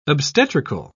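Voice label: "obstetrical", British English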